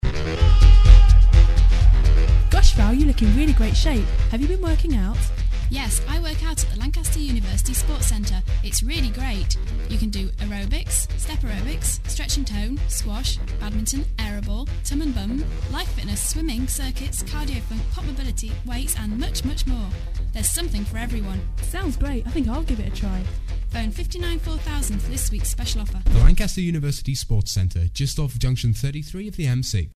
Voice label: ad; bfm jingles ads RSL 1995